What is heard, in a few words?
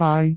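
"baai3", Cantonese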